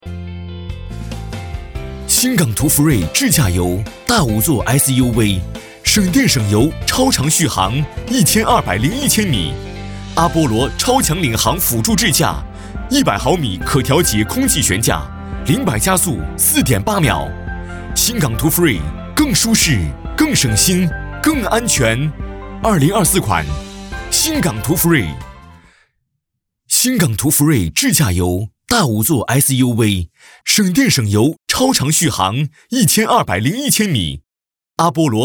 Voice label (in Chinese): 品质广告科技时尚; 新岚图汽车